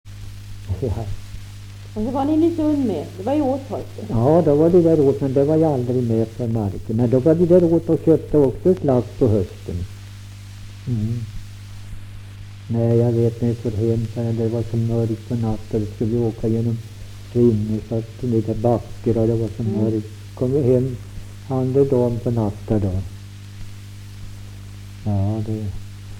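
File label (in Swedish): Ramundeboda Närke, dialekter; Folkminnen; Svenska sagor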